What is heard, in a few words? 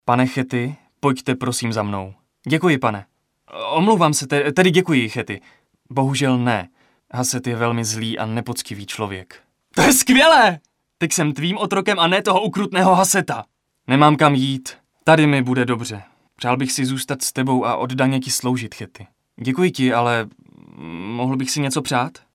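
Male Czech drama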